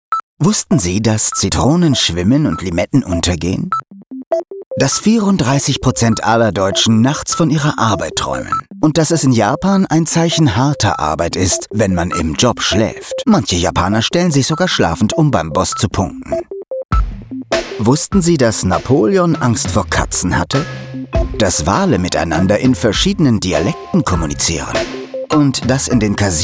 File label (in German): Off Stimme; Kurioses Wissen